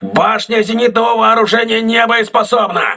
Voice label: aa turret damaged, crew ships russian